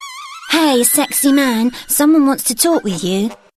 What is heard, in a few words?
Girl Voice